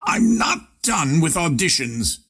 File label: attack pain; ringmaster